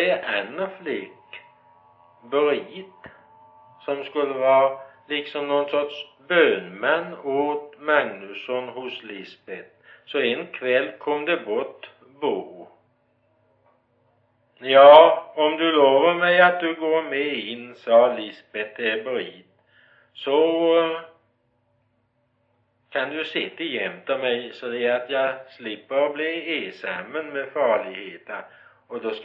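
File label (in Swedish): Gårdby Öland, Svenska sagor, dialekter; Folkminnen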